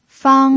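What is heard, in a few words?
"fang", Mandarin Chinese, first tone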